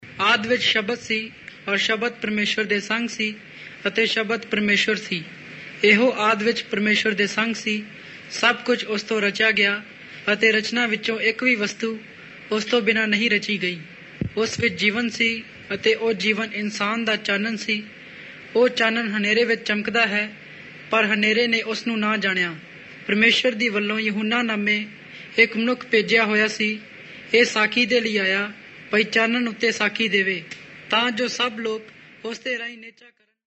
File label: punjabi, new testament eastern panjab; pan punjabi eastern panjabi audio bible